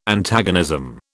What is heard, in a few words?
"Antagonism", British